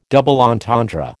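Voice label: "double entendre", American English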